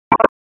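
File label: Police Northwest District Tactical 1, p25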